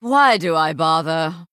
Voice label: Female Human Attack